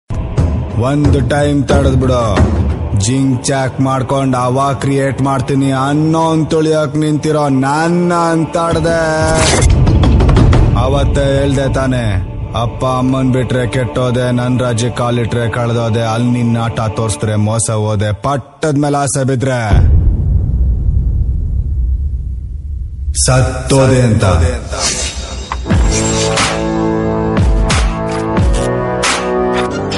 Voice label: mass, dialogue, kannada; pogaru